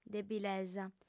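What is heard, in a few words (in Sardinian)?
"debilesa", female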